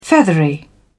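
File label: "feathery", British English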